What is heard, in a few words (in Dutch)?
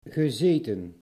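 "gezeten", Dutch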